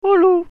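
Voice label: "Hallo", German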